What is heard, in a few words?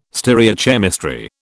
"stereochemistry", British